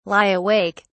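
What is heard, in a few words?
"lie awake", British English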